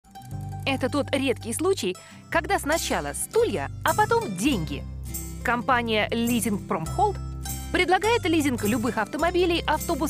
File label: Commercial, Russian Voiceovers; Sample recording: a commercial; Russian demo